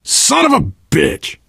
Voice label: swear, player survivor voice biker; source engine; left 4 dead 2